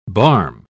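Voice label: "barm", British English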